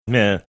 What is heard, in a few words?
funny sounding no